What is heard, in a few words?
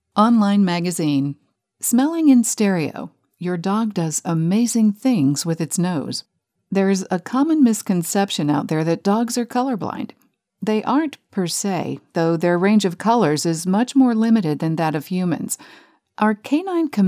Podcast, en-AU